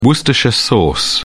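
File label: "worcestershire sauce", British English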